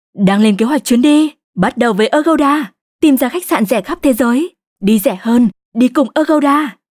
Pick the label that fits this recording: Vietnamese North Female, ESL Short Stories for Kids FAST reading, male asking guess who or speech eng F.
Vietnamese North Female